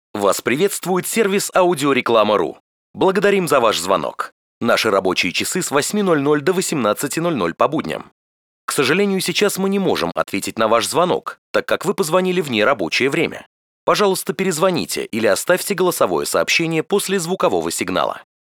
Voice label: IVR